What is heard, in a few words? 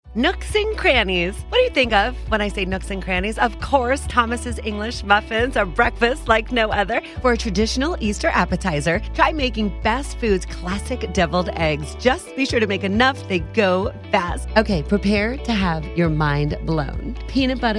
VO w music; voice talent